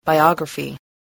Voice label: "biography", spelling